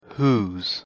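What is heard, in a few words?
"whose", English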